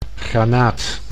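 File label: "granaat", Dutch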